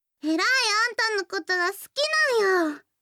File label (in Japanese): 好きです 徳島; imas; やよい